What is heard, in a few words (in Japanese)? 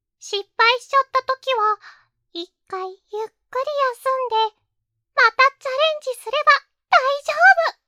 励ましボイス